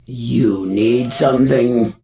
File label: NPC Death Knight Female Low Greeting